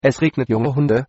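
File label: rain, German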